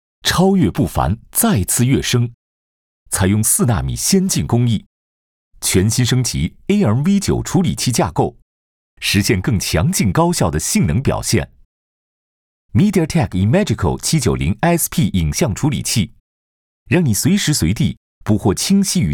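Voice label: Chinese, male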